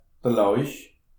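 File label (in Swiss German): "lauch", Alsatian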